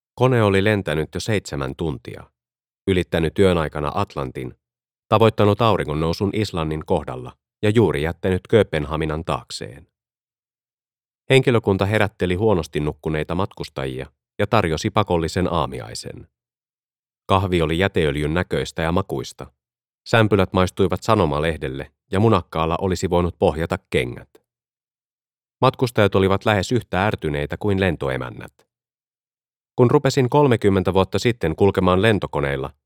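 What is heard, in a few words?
Finnish Audiobook Male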